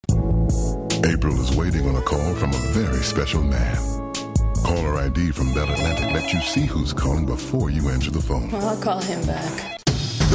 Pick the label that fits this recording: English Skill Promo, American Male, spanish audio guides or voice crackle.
American Male